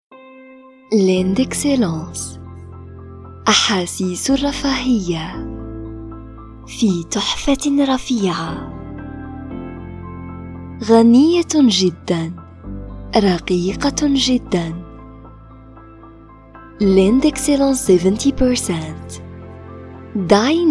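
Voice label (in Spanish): comercial, Argentina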